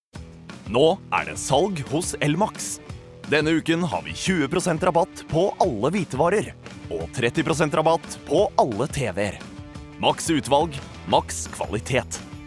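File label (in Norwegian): Norwegian reklame selgende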